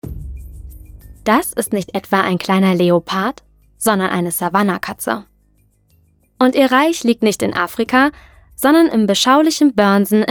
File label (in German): Voiceover Katze